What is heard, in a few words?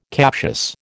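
"captious", American English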